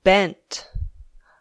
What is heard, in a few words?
"bent", English